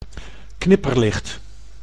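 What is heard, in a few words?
"knipperlicht", Dutch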